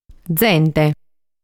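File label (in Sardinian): "Zente", Sardinian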